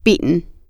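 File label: "beaten", American English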